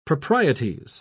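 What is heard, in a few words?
"proprieties", British English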